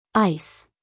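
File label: "ice", American English, female; everyone-can-use-english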